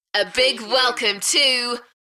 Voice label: UK female A big welcome to; beanstalk audio